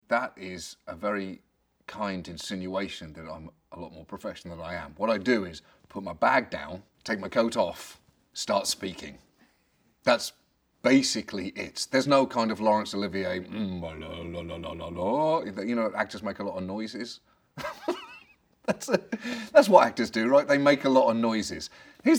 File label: voice over; John Oliver; animated debate